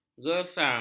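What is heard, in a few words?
"gersang", Malay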